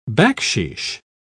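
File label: "backsheesh", British English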